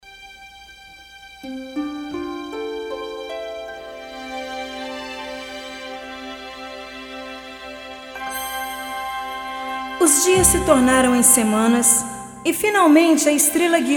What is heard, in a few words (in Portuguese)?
Interlúdio II + Narração